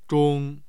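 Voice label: "zhong1", Mandarin Chinese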